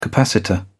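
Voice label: "capacitor", British English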